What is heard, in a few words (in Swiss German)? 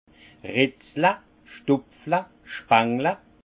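"ritzle", alsace Haut-Rhin; vin; grappiller après vendange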